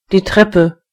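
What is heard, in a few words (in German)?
"staircase", German; gcompris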